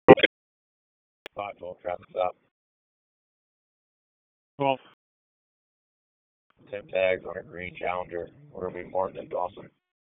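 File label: Police District Dispatch, p25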